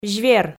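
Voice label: "звер", Komi, female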